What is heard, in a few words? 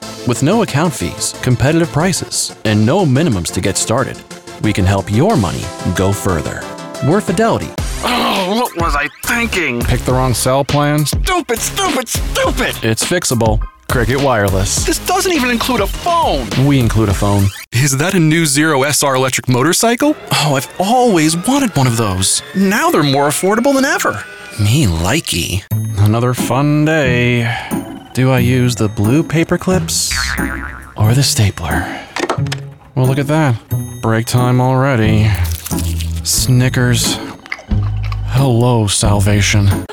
Commercial; Abacus Studios NYC